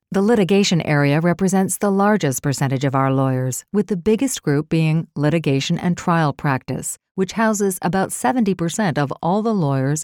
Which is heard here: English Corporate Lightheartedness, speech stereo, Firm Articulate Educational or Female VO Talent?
Firm Articulate Educational